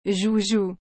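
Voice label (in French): "joujou", French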